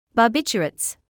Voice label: "barbiturates", English